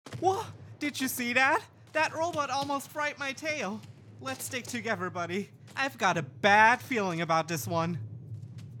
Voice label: Game English